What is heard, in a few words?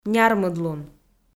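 "нярмыдлун", Komi, female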